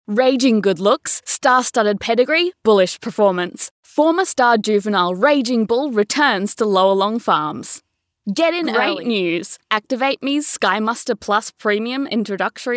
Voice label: Hard Sell